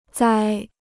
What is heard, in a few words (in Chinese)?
"栽", Chinese